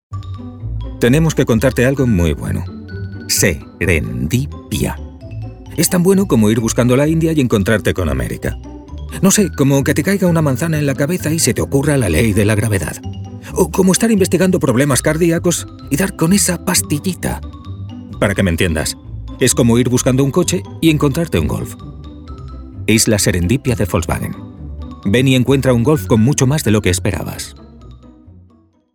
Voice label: CORPORATE, Spanish, male

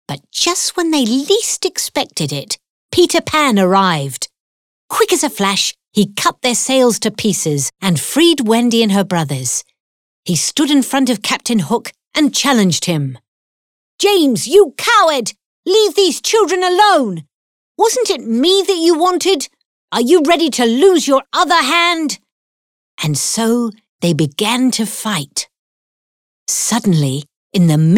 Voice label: AUDIOBOOK, ENGLISH UK FEMALE

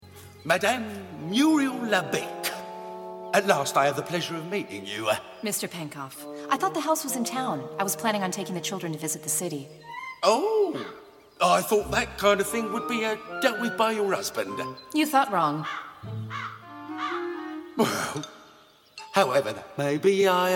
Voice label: character, ENGLISH UK MALE

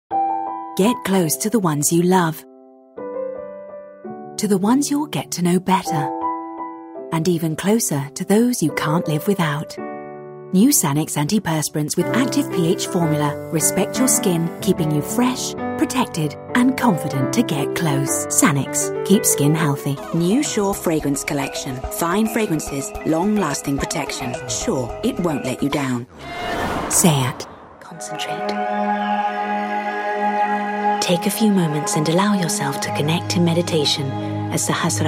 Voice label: advertising, ENGLISH UK FEMALE

